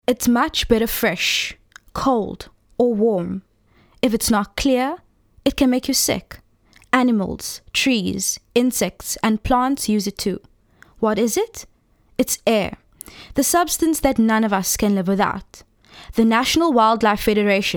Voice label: psa